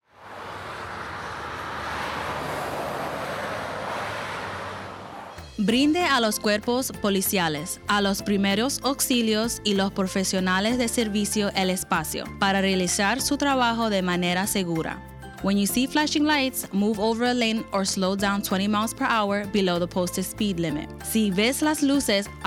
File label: Spanish; FLHSMV; MoveOver; driving safety